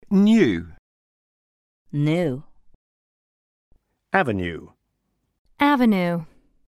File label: us vs uk le son u